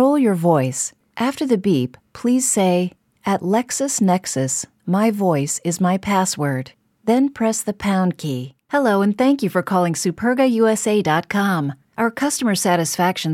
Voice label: english american ivr

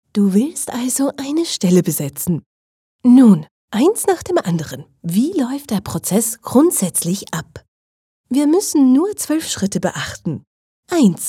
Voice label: german switzerland e-learning

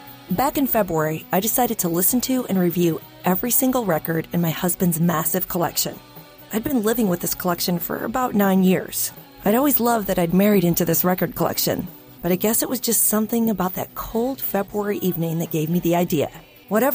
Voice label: english american podcasts

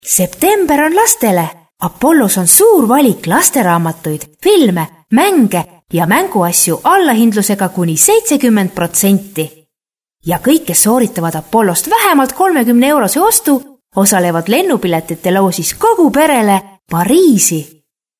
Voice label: estonian commercial

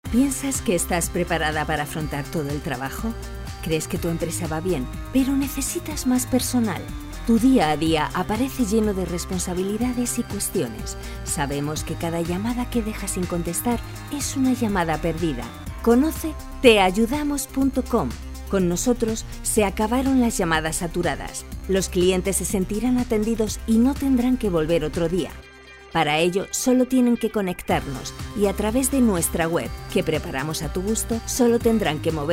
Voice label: spanish corporate videos

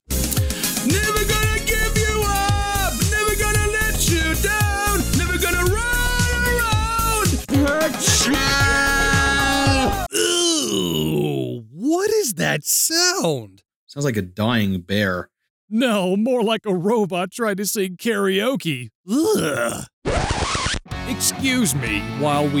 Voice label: english american radio imaging

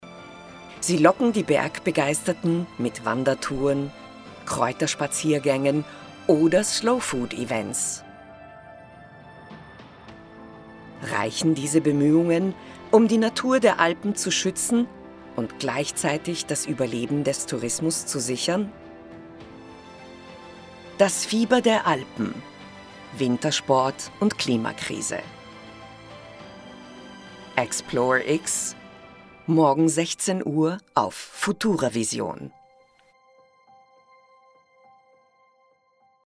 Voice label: german austrian movie trailers

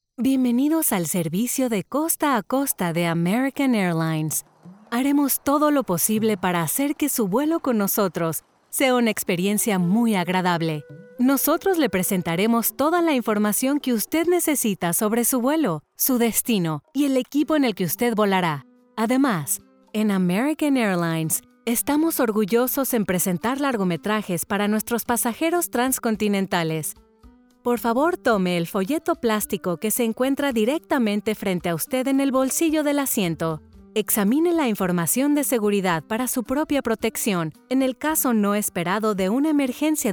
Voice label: spanish mexican e-learning

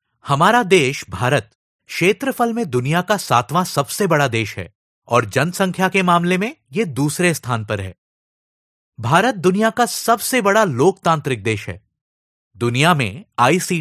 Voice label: hindi audiobooks

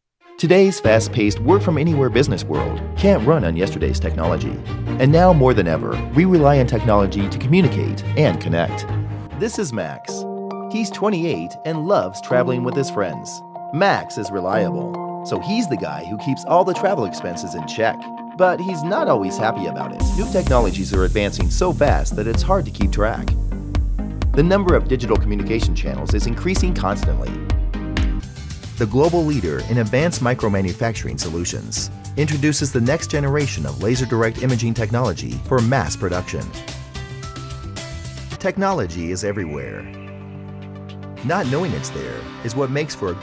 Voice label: english american explainer videos

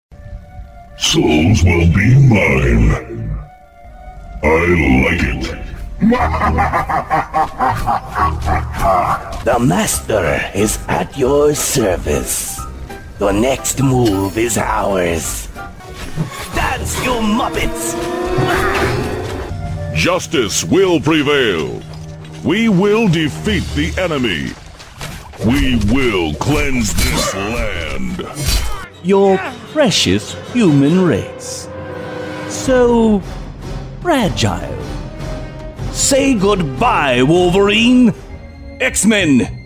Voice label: english american video games; professioneller amerikanischer Synchronsprecher

